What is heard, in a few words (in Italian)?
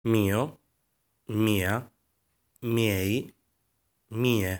Mio, Italian; lesson 11 buono bello quello possesive adjectives family